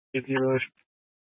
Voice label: Mesa PD Dispatch; 1 Fiesta Dispatch